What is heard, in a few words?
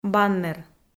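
"баннер" Komi, female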